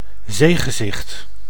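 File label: "zeegezicht", Dutch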